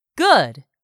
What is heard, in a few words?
"good", English; 3000 words